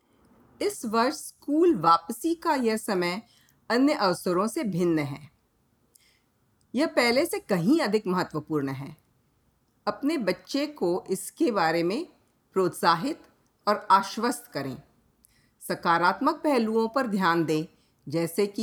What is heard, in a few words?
Message Hindi